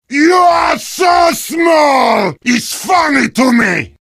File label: heavy taunts; video games; source engine; tf